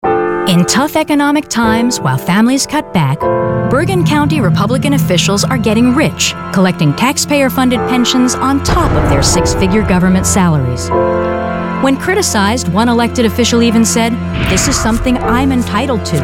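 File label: Political, voiceovers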